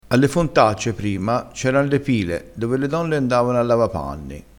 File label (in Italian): "pila", crespinese